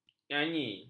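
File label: "nyanyi", Malay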